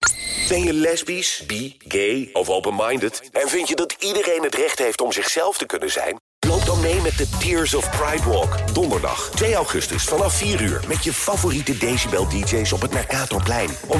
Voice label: Hard Sell